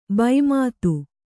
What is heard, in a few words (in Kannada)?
"ಭೂಮಿತಿ", Kannada